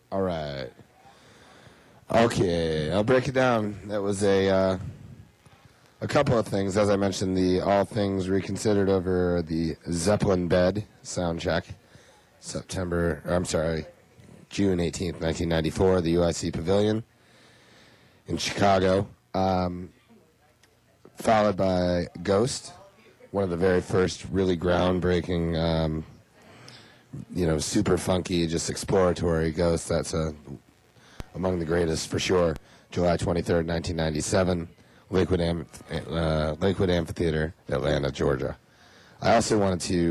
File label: radio banter